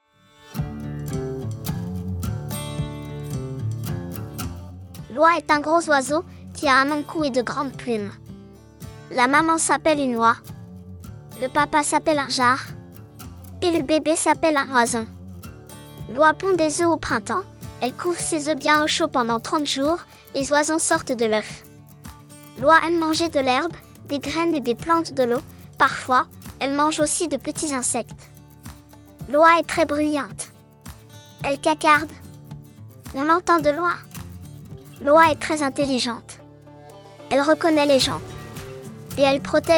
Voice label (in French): oie enfant, explication; ranch du moulin st martin